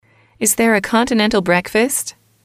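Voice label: is there a continental breakfast, English, female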